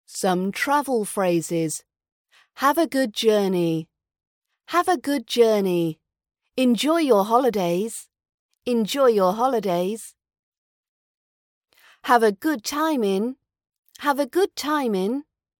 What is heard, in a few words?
travel phrases RP British accent